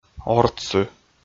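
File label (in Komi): "ортсы", Komi, male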